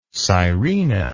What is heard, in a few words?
"sirena", English